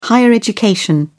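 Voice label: "higher education", English, British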